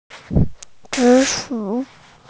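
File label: "sweet potato", Mandarin Chinese, female; zi3shu3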